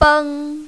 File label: "beng1", mandarin, female